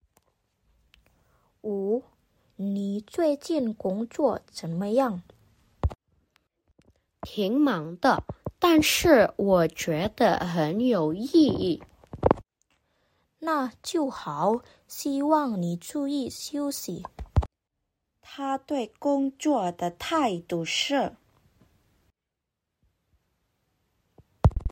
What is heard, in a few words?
Mandarin; mandarin placement test hsk4